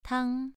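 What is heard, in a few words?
"tang" Mandarin Chinese, second tone, pronunciation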